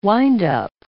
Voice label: "windup", English

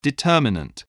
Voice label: "determinant", english spelling

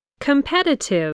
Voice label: "competitive", english

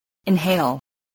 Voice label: "inhale", English

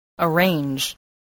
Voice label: "arrange", English

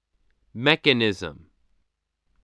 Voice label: "mechanism", spelling voice

